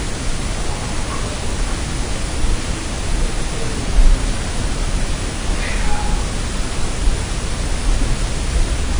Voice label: Basement Unexplained whisper Amplified; Truner Home

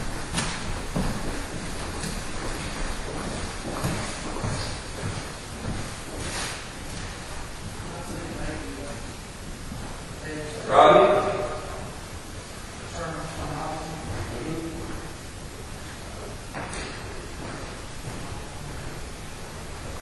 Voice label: Opera House Balcony very loud

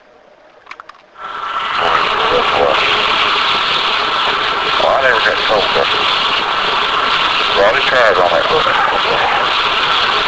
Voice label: EVP; Allens recorder

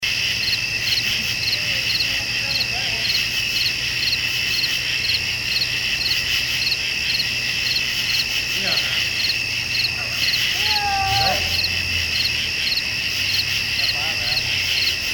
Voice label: Cemetery, male voice; Case 016 Hebrew-Bryant; Hebrew maybe

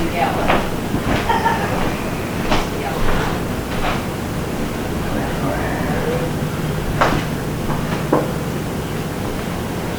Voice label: Unexplained Inaudible Low Whisper Amplified

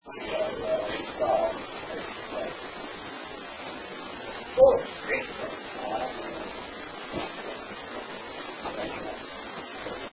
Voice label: Parents BR, female bullet street; Case 007 Webb Home

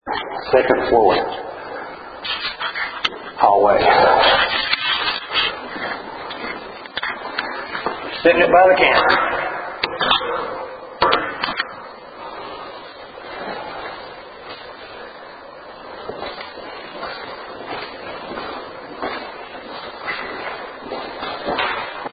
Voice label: Fuel Building Second Floor Unexplained whisper OK; Case 024 Pocahontas Fuel Building II

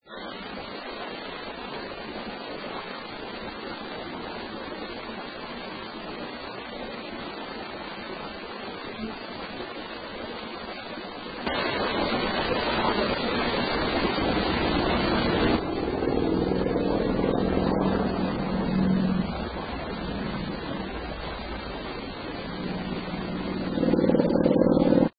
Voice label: Home Attic Whisper HELP ME AMP; Case 021 Zepp

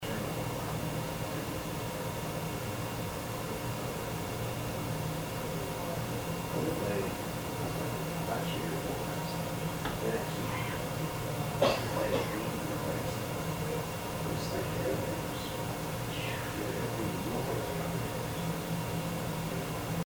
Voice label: Female Voice; Case 021 Zepp Home; Kitchen Dining Room

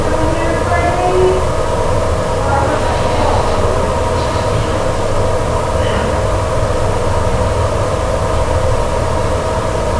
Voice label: Unexplained Low Inaudible Whisper

